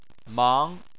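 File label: "mang", Chinese, second tone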